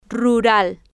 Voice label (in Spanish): "rural", Spanish